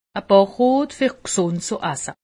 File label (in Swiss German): "rot", alsace; medecine